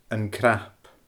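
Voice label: "An Cnap", Scottish Gaelic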